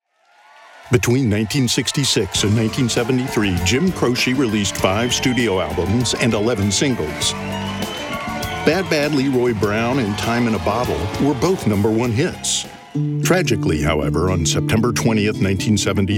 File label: Narration